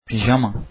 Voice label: pajamas, turkish

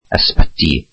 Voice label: welsh hospital

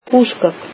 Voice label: icelandic furniture

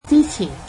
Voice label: before, cantonese

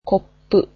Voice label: "cups", japanese

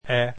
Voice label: esperanto alphabet e

